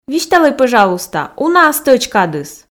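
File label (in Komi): "висьталӧй пӧжалуйста уна-ӧ стӧч кадыс", Komi, female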